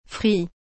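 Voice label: "frit", French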